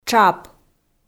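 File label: "тшап", Komi, female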